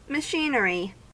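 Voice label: "machinery", US English; pronunciations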